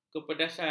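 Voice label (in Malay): "kepedasan", Malay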